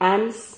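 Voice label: "ans", Spanish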